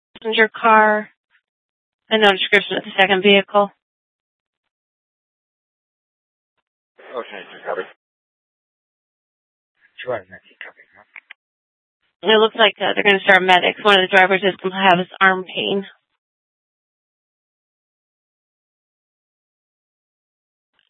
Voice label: Superstition Dispatch, Mesa PD